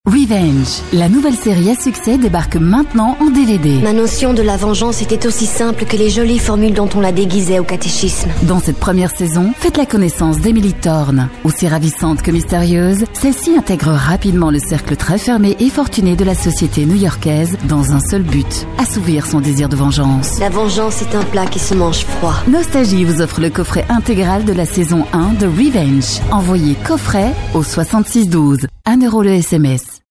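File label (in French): Belgian French, female, commercial; voice demos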